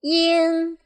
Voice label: "ying", pinyin